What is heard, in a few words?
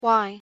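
pronunciation "why", English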